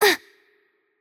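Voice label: hurt light, Russian; bloom minigame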